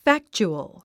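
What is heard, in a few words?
"factual", English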